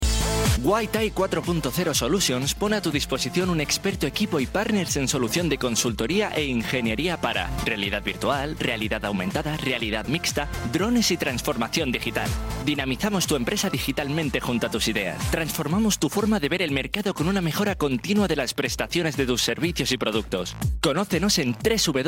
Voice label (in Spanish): cuña